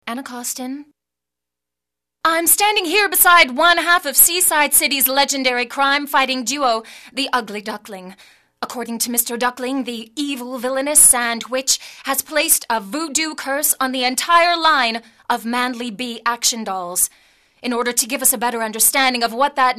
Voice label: animation female